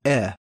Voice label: phoneme short e, American

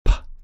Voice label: phoneme p, American; American IPA phonic

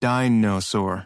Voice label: slow dinosaur, American; American IPA phonic

